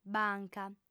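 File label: "banca", female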